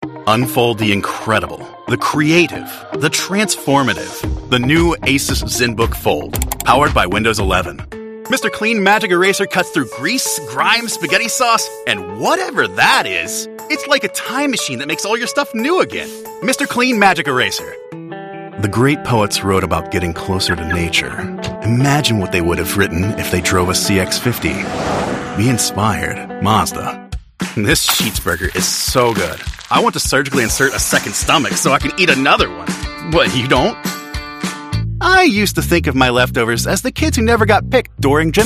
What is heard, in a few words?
english american commercial